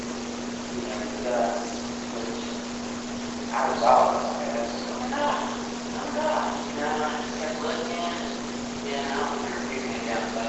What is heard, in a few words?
Ballroom Unexplained Male Voice (Oh my God...Im God) Amplified